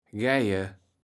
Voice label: "geije", Kaevels Platt

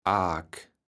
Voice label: "Aak", platt

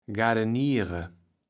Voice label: "gardeniere", Kaevels Platt

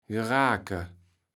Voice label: "gerake", Kaevels Platt

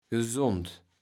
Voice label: "gesond", Kaevels Platt

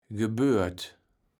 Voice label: "gebört", Kaevels Platt

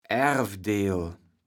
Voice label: "Aerevdeel", platt

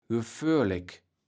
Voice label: "gefoerlek", Kaevels Platt

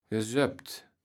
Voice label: "gessöpt", Kaevels Platt

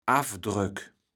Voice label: "Affdröck", platt

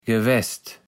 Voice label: "gewest", Kaevels Platt

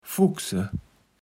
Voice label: "fuchse", Kaevels Platt

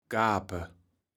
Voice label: "gape", Kaevels Platt

